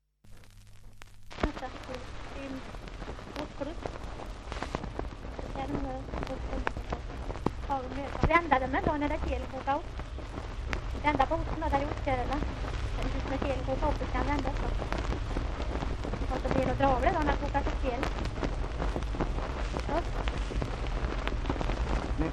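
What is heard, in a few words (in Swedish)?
Hede Härjedalen, dialekter; Folkminnen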